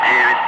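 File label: "5", spoken; Ka-50; Wingman; Digits; DCS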